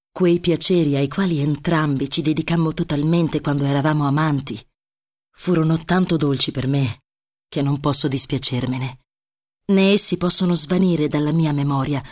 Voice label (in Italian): Narrazione, ITALIAN FEMALE; narrative